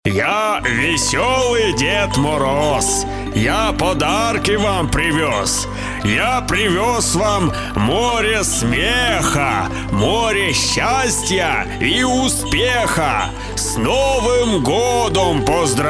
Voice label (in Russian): golos deda moroza s pozhelanijami